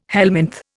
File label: "helminth", Indian